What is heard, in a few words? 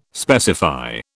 "specify", British